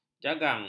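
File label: "jagang", Malay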